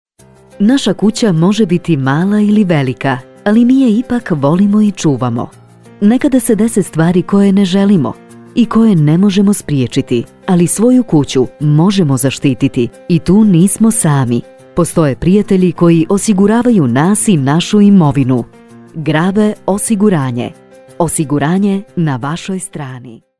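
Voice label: Bosnian, female, e-learning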